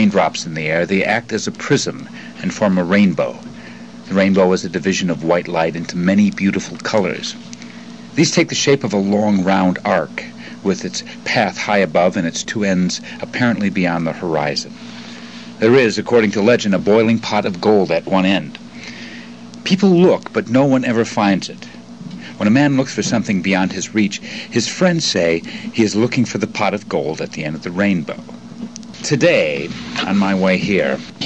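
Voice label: michigan, International Dialects of English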